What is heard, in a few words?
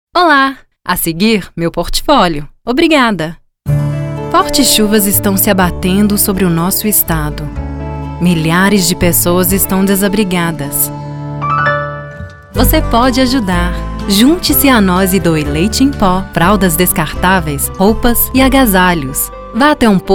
brazilian portuguese